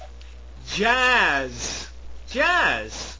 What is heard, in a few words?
"jazz", pronounce it